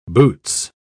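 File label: "boots", British English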